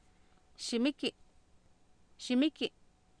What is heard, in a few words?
"xi miki" Nahuatl, Oapan, female; Oapan minimal pairs 2000